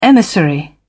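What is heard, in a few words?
"emissary", British English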